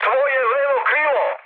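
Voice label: Serbian left wing mood high, speech; War Thunder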